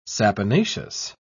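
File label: "saponaceous", British English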